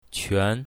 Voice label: "quan2", Mandarin

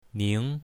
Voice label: "ning2", chinese, mandarin

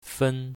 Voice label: "fen1", Mandarin; drinks

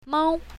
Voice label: "mao1", Mandarin; animals

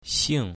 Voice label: "xing4", Mandarin

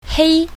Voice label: "hei1", Mandarin; colors

